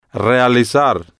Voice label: "realizar", spanish

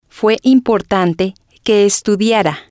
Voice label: "fue Importante Que Estudiara", spanish

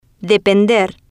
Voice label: "depender de", Spanish

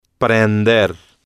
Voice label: "prender", Spanish

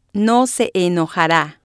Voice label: "no Se Enojara", spanish

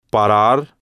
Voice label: "parar", Spanish

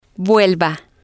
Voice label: "vuelva", Spanish

